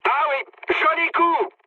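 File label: French bomb success mood high; War Thunder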